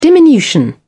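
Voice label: "diminution", British English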